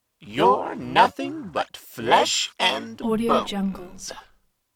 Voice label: robot vocal you're nothing but flesh and bone male computer voice